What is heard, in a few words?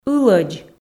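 "ылӧдз", Komi, female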